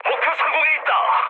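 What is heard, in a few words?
Korean near waypoint mood high, speech; War Thunder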